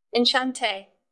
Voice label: VoiceActing es F